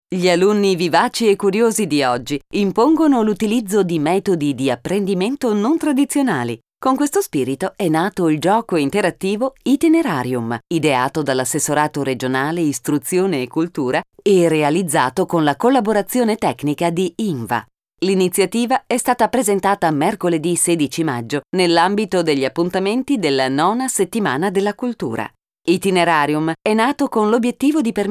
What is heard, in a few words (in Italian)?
lettura; Itinerarium